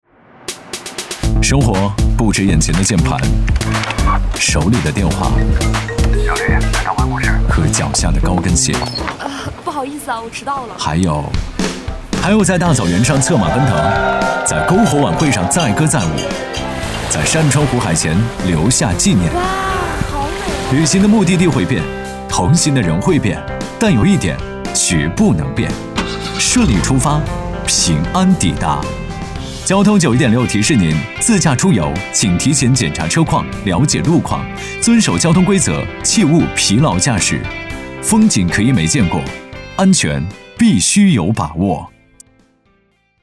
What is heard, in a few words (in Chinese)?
男 电台公益 都市风